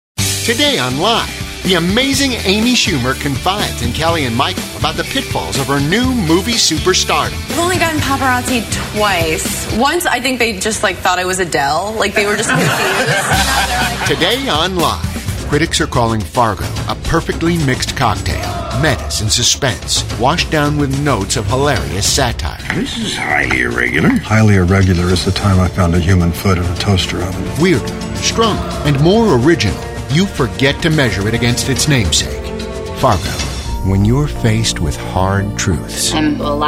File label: TV Promo